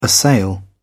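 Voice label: "assail", British English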